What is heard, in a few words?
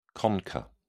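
"concha", British English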